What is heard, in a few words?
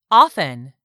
"often", English; 3000 words